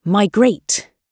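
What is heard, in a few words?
"migrate", British English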